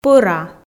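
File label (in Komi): "пӧра", Komi, female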